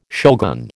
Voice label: "shogun", American